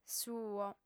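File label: "suo", female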